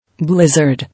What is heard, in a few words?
"Blizzard", English